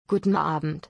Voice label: "Guten Abend", German